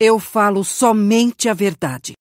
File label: voice portugues brasileiro, brazilian portuguese; league of legends